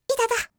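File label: "itata", Japanese; hidan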